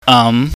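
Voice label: "am", Cantonese, mid rising tone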